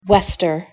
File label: "wester", Proto Germanic